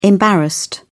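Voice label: "embarrassed", British English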